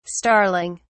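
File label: "starling(s)", British English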